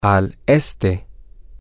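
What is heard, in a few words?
to the east, Spanish, male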